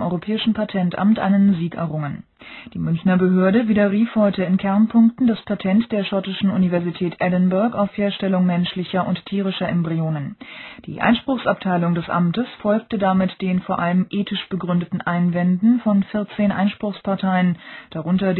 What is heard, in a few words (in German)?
nachrichten; dradio